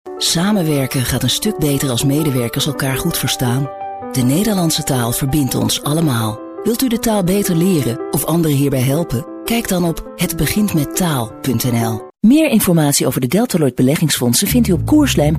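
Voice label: dutch female commercial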